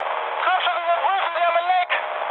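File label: German tank damaged mood high, speech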